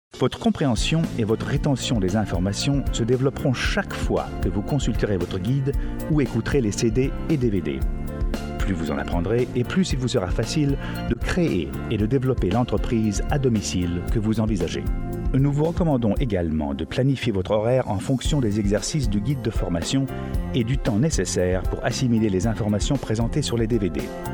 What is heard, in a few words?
Narration French Male